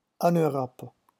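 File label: "en Europe", French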